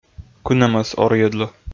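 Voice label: "кынӧмӧс орйӧдлӧ", Komi, male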